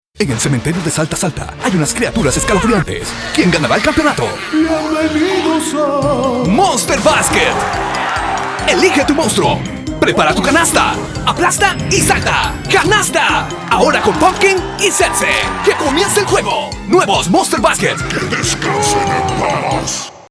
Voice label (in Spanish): Cuña; Monster Basket